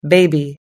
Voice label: "baby", us english